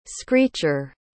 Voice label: "screecher", pronounce

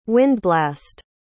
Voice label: "windblast", pronounce

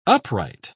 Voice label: "upright", pronounce

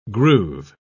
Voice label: "groove", pronounce

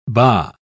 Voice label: "baa", pronounce

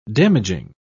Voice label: "damaging", pronounce

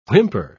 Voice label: "whimper", pronounce

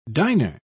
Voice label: "diner", pronounce

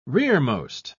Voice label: "rearmost", pronounce

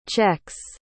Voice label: "czechs", pronounce

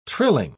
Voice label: "trilling", pronounce

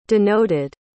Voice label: "denoted", pronounce

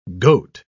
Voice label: "goat", pronounce

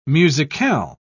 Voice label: "musicale"

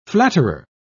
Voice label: "flatterer", pronounce

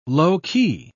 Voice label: "low-key", pronounce

